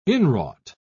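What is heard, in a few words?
"inwrought", British English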